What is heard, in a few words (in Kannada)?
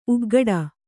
"ಉಗ್ಗಟ", Kannada